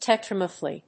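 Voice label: "tetra methyl", English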